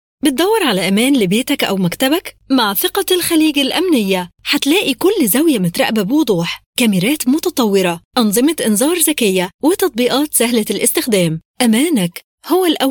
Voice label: voiceover